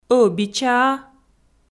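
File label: "ӧбичаа", Komi, female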